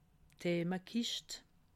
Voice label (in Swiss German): "themekischt", alsace